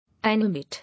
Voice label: "Animate", English